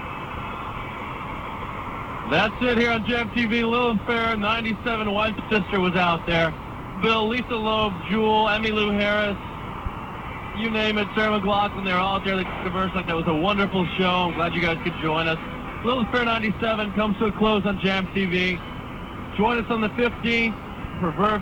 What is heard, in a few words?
announcer